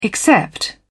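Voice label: "except", British English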